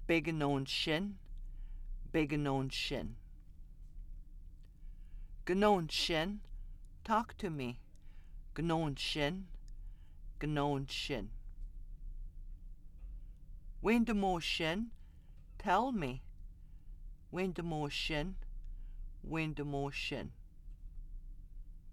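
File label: conversational commands singular, Anishinabe; Lesson 3 (Conversational Commands singular)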